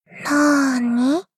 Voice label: doubt